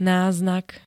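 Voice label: "náznak", Slovak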